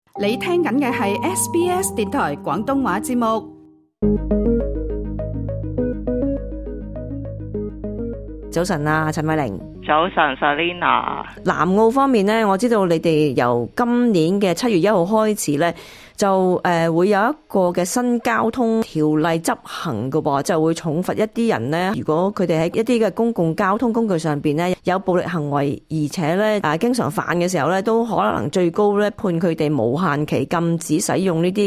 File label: cantonese; 南澳政府嚴打孌童犯人; 南澳政府為了針對一些多次犯上孌童行為的犯人而加重他們的刑罰，究竟刑罰有多重呢？; SBS Chinese